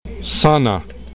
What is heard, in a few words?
"sana", esperanto